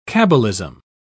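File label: "cabalism", British English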